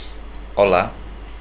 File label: hello, portuguese